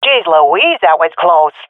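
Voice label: close call, swat female; vo; Counter Strike Model Pack; CS:GO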